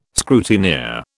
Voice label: "scrutineer", British

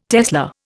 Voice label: "tesla", Indian

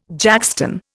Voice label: "jackstone", Indian

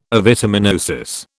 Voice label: "avitaminosis", British

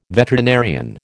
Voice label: "veterinarian", American

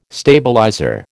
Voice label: "stabilizer", American

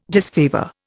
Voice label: "disfavour", Indian

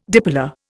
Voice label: "dipolar", Indian

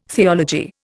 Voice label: "theology", Indian

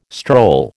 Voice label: "stroll", American

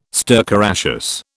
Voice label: "stercoraceous", British

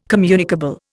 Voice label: "communicable", Indian

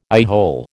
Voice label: "eyehole", American

